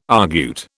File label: "argute", British